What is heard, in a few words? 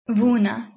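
"vuna", Bosnian-Croatian-Serbian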